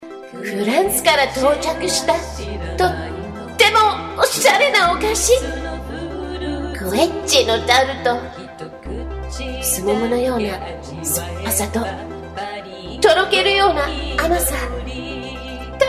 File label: Japanese